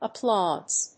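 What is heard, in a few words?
"applauds", English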